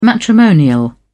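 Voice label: "matrimonial", British English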